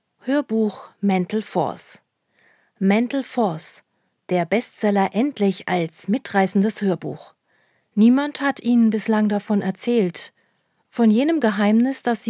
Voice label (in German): hoerbuch; mental force